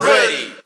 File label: "ready", group of men; Voice; introductions